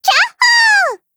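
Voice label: PHRASE, Japanese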